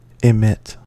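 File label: "emit", US English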